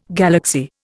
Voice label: "galaxy", Indian